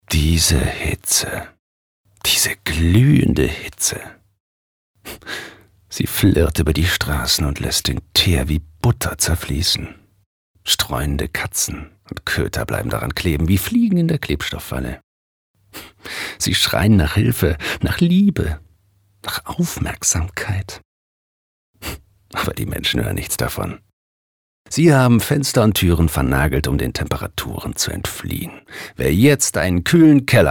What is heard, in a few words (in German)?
Schauspiel, Neutral